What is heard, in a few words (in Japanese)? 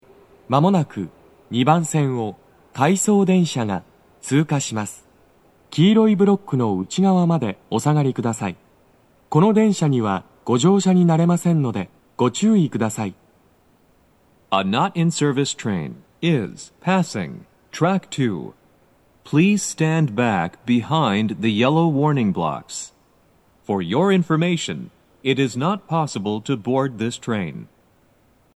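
接近放送, 回送電車の通過, 男声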